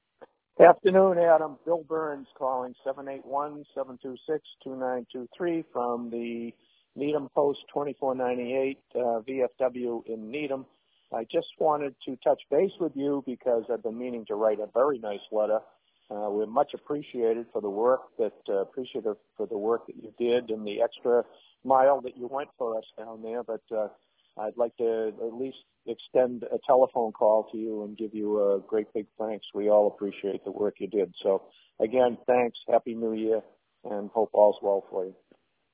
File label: voicemail